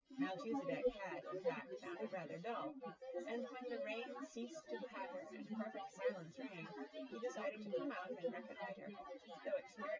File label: test female synthconv 130ms 1m src; SiSEC08; SiSEC underdetermined